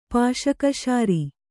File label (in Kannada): "ಪಾಶಕಶಾರಿ", Kannada